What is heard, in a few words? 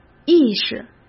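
"意识", Mandarin Chinese; hsk; chinese-flashcards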